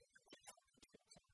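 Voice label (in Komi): кымын часын тэ чеччан, Komi, female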